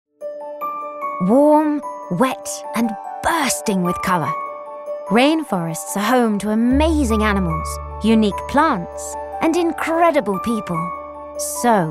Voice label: corporate reel; VOICEFOX

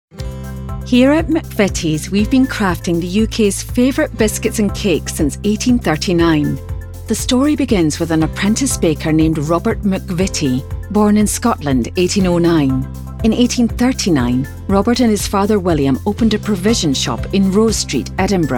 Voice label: SCOTTISH CORPORATE

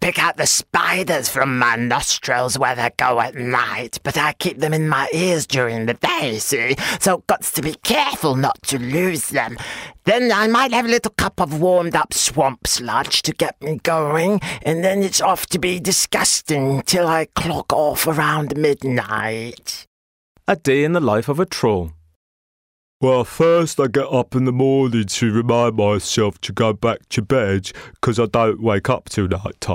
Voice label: CHARACTER VOICE

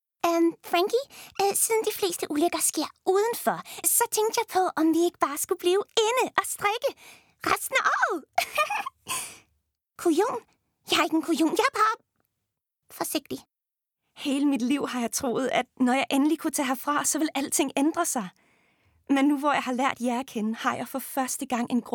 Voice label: Animation, DK UK US; VOICEFOX

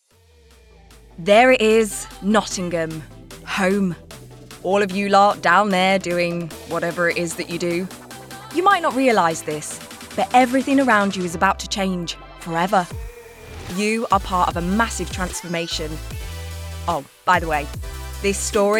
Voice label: corporate reel; VOICEFOX

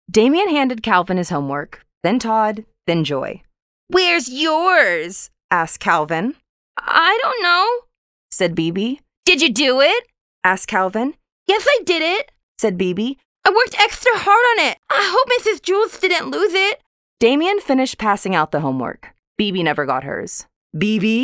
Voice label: Audiobook Sample 3rd Person Grade School

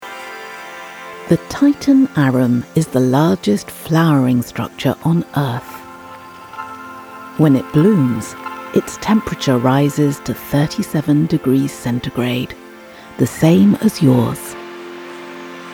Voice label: immersive audioguide; eden

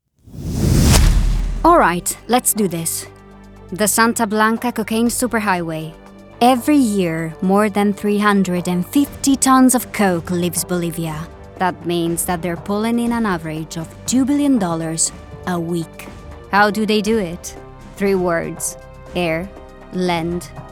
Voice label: English Narration; VOICEFOX

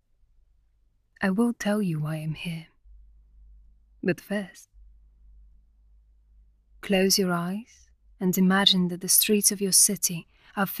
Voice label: English narration documentary

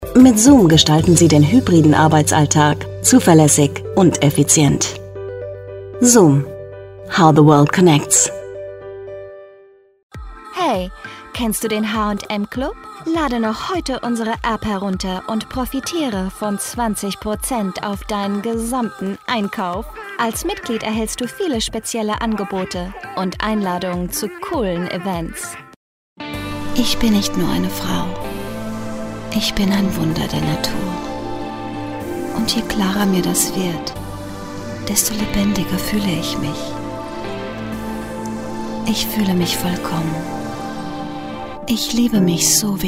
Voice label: German commercial

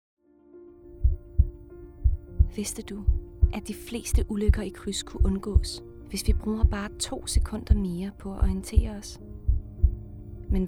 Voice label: Danish Commercial; VOICEFOX

